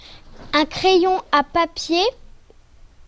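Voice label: "pencil", French; classroom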